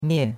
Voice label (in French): "mie4", chinois; Cours-de-Chinois